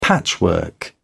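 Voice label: "patchwork", British English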